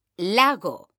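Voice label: "lago", Spanish, female; mekanta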